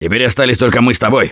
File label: Double Death Response, russian, Survivor Voice; Gambler